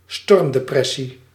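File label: "stormdepressie", Dutch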